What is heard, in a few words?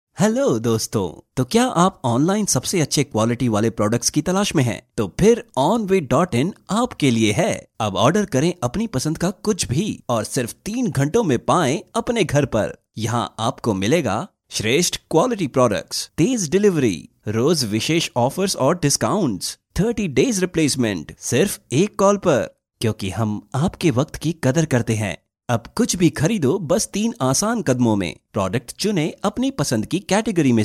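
Hinglish India male voice over, upbeat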